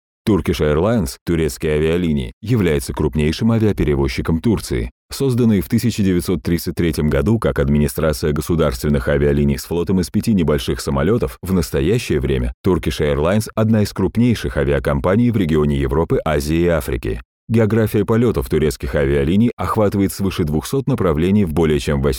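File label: Russian Russia male narrative; russian voice over talent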